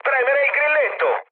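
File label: Italian attack position mood low, speech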